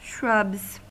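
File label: "shrubs", American English; pronunciations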